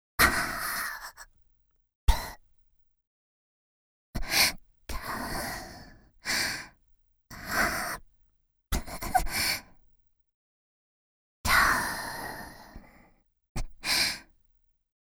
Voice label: ワイドラブB(ささやき)左<解説なし>; 実技パーツ（エクササイズ）; 第１回講座より（ラブ&タップ）

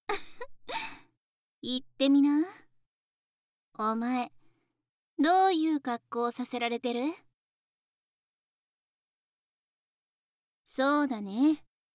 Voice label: クチュ音あり

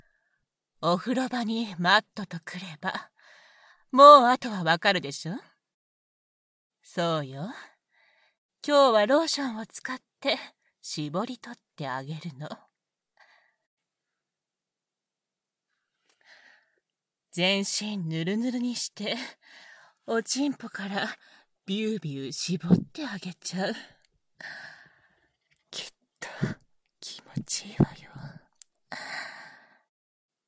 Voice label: ローションで全身を重ね合わせながら（バイノーラルマイク 効果音あり大きめ）, ASMR

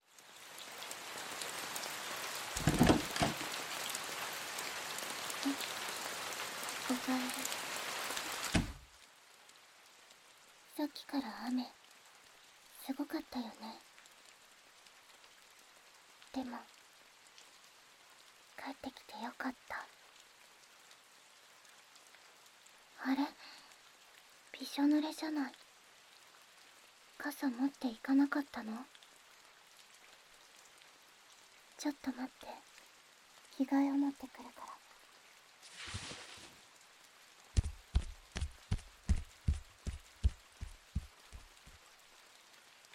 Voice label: 膝枕（催眠導入）, ASMR

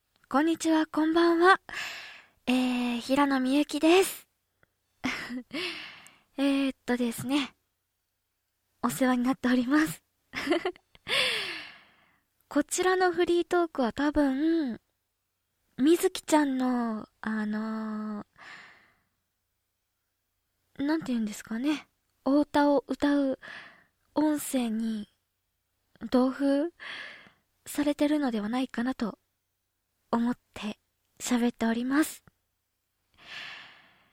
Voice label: フリートーク; 収録前・GW・カラオケ・歌

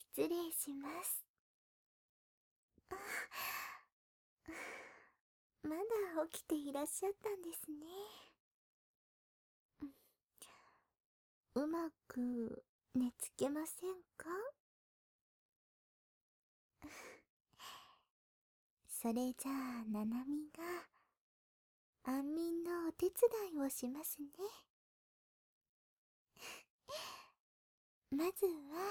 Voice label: ナースとして添い寝（右反転・BGMなし）; 七海さん

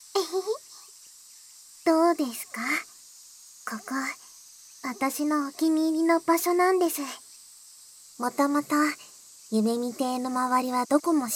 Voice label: まったり二人で森林浴（バイノーラルマイク収録 効果音あり）, ASMR

